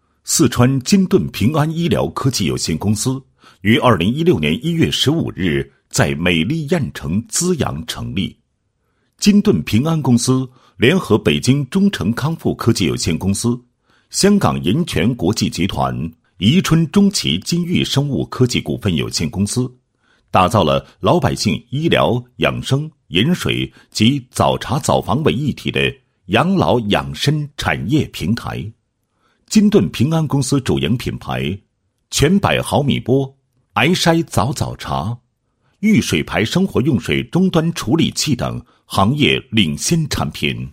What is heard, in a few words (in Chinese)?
男, 医疗企业宣传; 四川金盾平安医疗科技有限公司